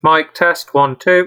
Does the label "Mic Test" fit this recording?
Yes